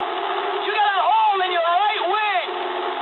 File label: eng right wing mood low, speech eng; War Thunder